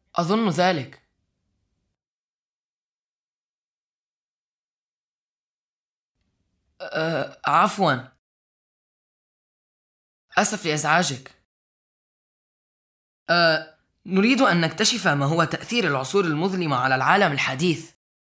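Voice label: arabic male kid narration; voice demos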